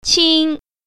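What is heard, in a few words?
"qing1", Mandarin Chinese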